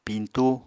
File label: "piñtú", Apatani